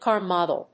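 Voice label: "CAR MODEL", English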